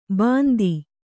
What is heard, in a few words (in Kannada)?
"ಬಂದಿ", Kannada